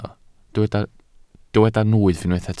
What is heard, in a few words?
"nwyf", welsh